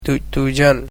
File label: "star", Sora